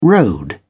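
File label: "rode", British English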